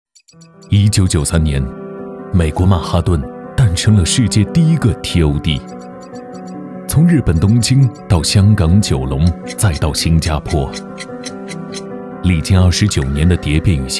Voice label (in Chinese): 男 专题; 保利地产TOD